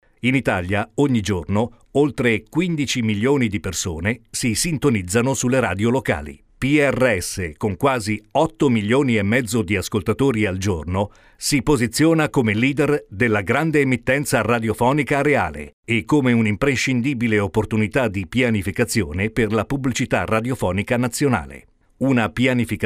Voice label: Corporate Video; Bestradio